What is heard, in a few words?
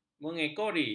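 "mengekori", Malay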